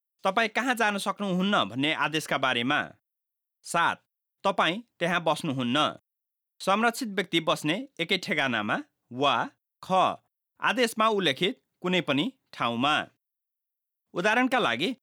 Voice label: orders about where you cannot go, Nepalese; apprehended domestic violence orders accessible guides

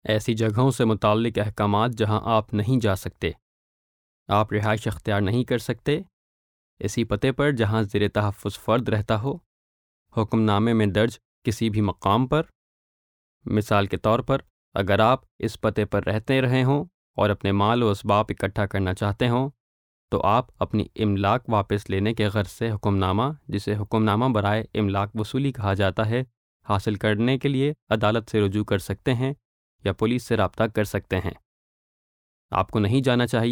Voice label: urdu; orders about where you cannot go

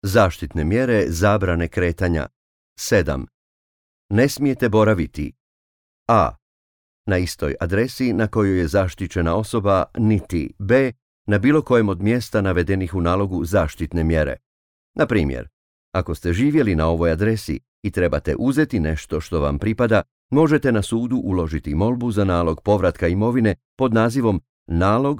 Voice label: croatian; orders about where you cannot go

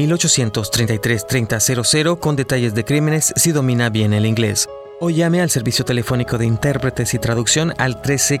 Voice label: Spanish Crime Stoppers

